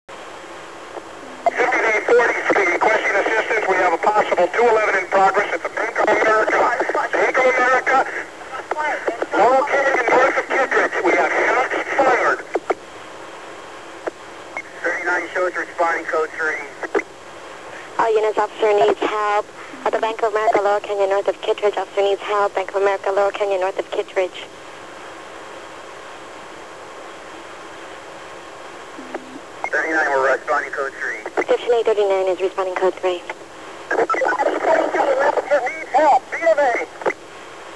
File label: police radio; nchicago